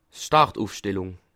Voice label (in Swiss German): "start", Alsace